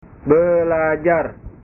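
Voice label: "belajar", Indonesian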